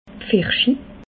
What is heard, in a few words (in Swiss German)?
"pfirschi", alsace